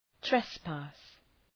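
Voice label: "trespass", English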